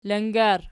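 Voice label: "langar" nominative, Archi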